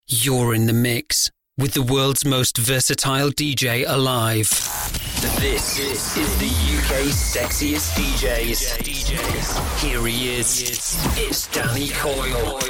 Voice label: DJ and Radio